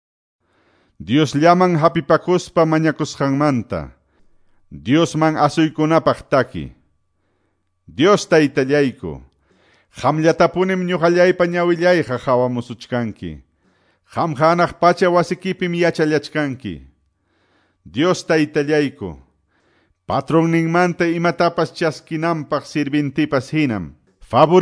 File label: salmos, quechua ayacucho; quechua ayacucho pbs non drama